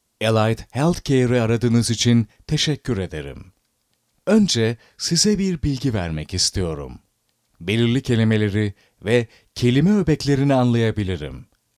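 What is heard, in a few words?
turkish male voice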